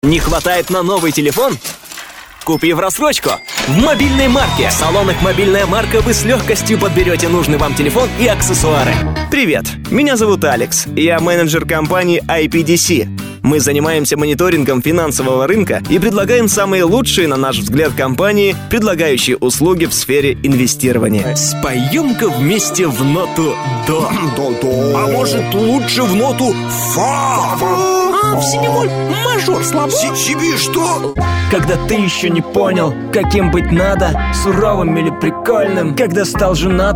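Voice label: male voice, Russian; muzhskie golosa, diktory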